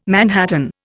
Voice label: "manhattan", Indian